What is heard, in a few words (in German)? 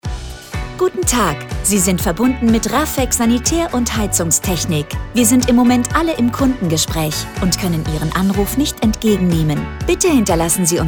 alle im Gespraech, Anrufbeantworter Ansage alle im Gespräch / alle Leitungen besetzt; Telefonansagen für Sanitär & Heizung (SHK); IVR Ansage